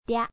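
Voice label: "rya", Japanese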